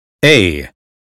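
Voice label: American phonetics sound day; 英语音标发音（剑桥版）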